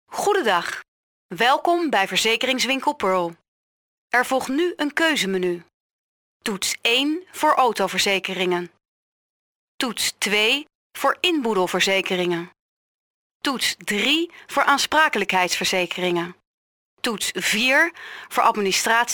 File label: female dutch voice over narrative